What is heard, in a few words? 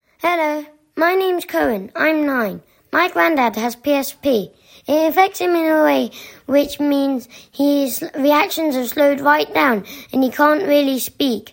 voice note; Grandad has PSP